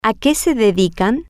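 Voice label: what do they do for a living, Spanish, female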